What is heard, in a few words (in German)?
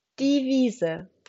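"Wiese", German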